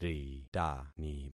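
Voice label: "ritani", concatenated syllables; Affixing experiment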